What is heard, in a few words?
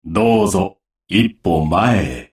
desperation power; paradox bondrewd voice pack; chrono